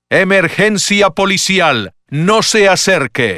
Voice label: Police Emergency (Spanish); Pathfinder

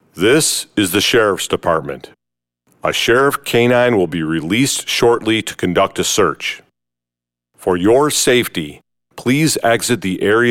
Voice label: Sheriff Canine Search (English); Pathfinder

